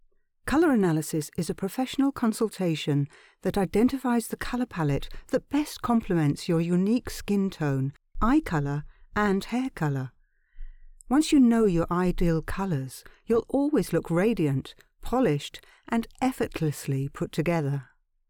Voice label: Colour Analysis, Television Spots, British, Female Voice Over